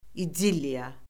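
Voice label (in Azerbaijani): "idilliya", Azerbaijani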